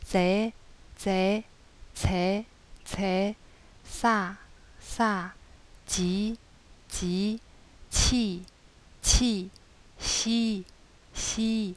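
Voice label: consonants s, yancheng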